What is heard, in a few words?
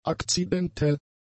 "akzidentell", German; adjectives